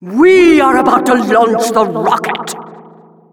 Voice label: Announcer rocket warnings

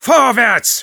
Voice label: battle cry, de; Sniper

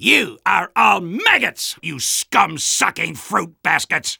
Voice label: Soldier taunts

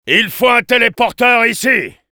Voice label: Engineer need teleporter, fr

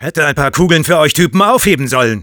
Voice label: jeers, de; Sniper

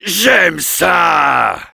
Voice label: Heavy positive vocalization fr

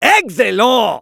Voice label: Medic cheers, fr

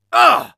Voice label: negative vocalization, es; Demoman

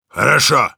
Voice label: good job, ru; Heavy

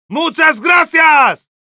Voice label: Heavy thanks, es

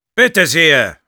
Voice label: positive vocalization, de; Sniper

